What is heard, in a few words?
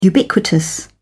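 "ubiquitous", British English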